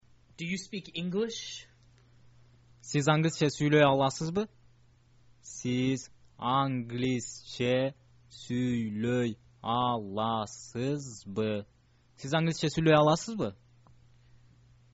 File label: do you speak english, kyrgyz